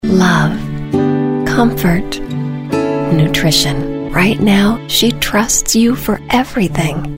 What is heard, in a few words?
Voice Talent Commercial; Pampers